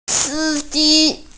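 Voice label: "driver", Mandarin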